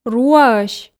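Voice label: "руаӧсь" Komi, female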